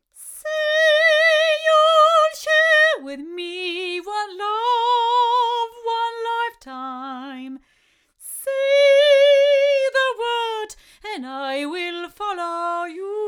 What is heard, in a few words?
ADK A51ST female